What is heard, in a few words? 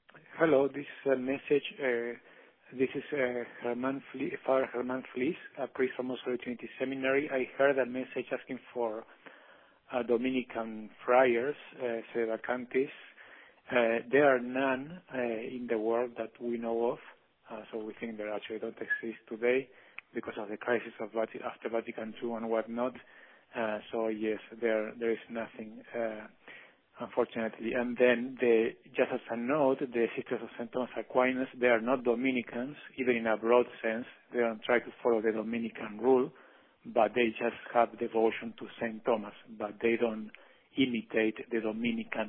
voicemail; answering if there are any SVist Dominican friars